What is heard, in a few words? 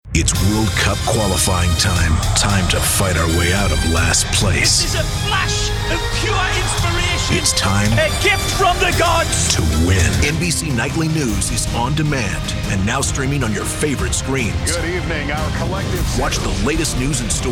Promo